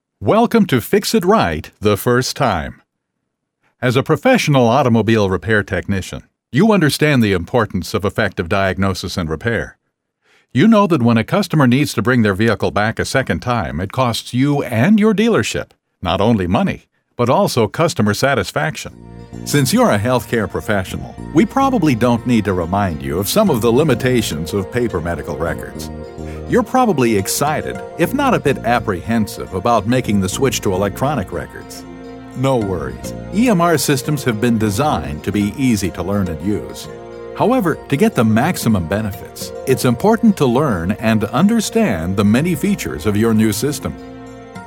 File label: Instructional